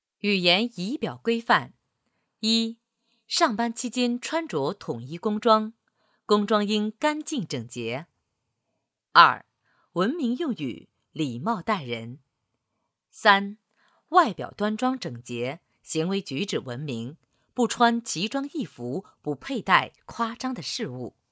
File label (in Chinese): 女 员工行为准则